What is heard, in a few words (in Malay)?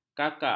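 "kakak", Malay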